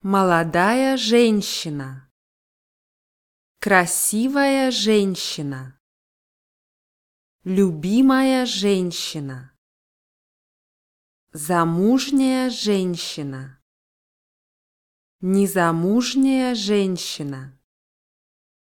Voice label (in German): frau russisch ausdruecke